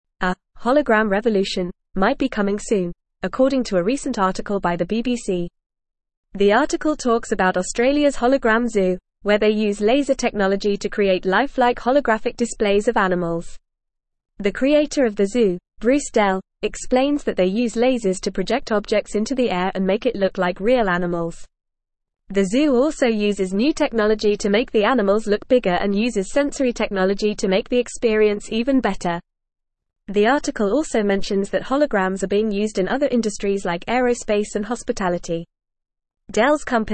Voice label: English Newsroom Upper Intermediate FAST Reading; Australias Hologram Zoo Revolutionizing Entertainme